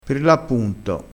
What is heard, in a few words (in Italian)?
"per l appunto", crespinese